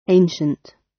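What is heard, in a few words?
"ancient", British English; Time, history; Thời gian, lịch sử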